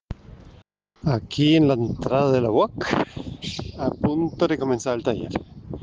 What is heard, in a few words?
Voice message